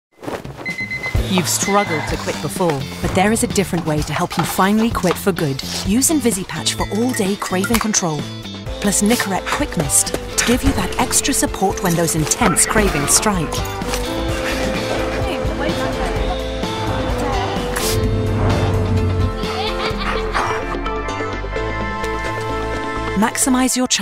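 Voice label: Commercial, female; Nicorette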